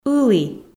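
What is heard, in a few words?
"ылі", Komi, female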